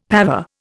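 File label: "pavé", Indian; Dictionary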